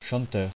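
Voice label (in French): "chanteur" French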